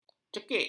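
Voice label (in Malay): "cekik", Malay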